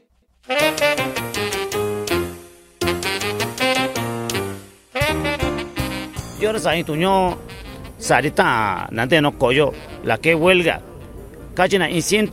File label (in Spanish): saludo a la kehuelga en mixteco; maestros2013